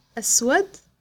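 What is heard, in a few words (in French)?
"noir", French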